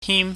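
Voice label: "him", Cantonese, high falling tone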